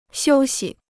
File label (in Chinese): "休息", Chinese